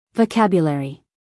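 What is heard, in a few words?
"vocabulary", American English female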